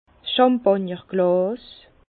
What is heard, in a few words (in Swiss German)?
"champagnerglas", Bas Rhin